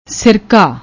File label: "sirka", Punjabi